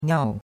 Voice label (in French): "niao4", chinois; Cours-de-Chinois